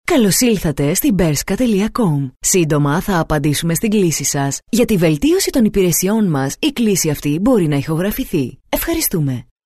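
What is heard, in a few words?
Greek, female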